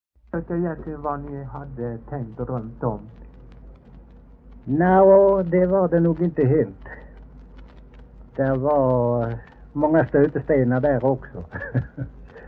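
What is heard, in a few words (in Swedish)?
Kinnared Halland, dialekter, svenska sagor; Folkminnen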